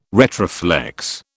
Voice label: "retroflex", British

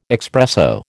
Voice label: "expresso", American

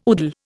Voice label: "udal", Indian

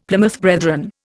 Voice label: "Plymouth Brethren", Indian; Dictionary

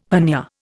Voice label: "hernia", Indian

